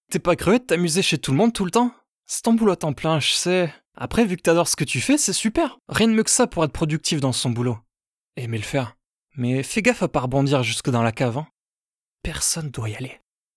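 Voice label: French Character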